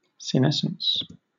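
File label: "senescence", British English; pronunciations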